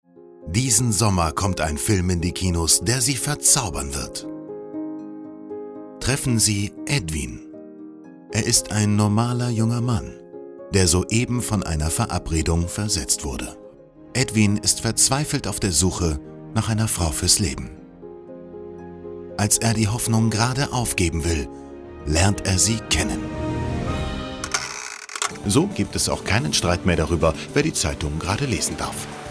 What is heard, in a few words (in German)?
Doku und Werbung, Sprecher; Sprecherdemo